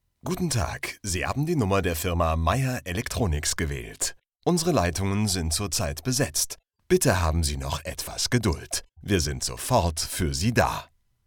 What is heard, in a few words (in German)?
DE IVR